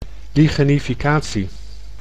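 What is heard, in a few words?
"lichenificatie", Dutch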